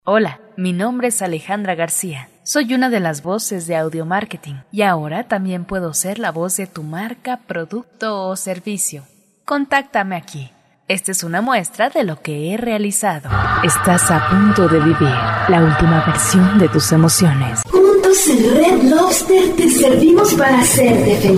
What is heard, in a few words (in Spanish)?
Locutora